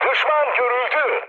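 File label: Turkish enemy detected, mood med, speech; War Thunder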